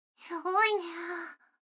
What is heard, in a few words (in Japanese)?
女の子台詞, ASMR